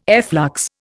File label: "efflux", Indian